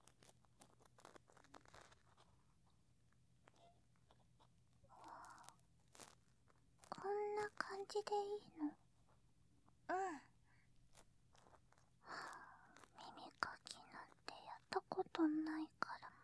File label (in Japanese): 耳かき癒しパート, ASMR; 参の朝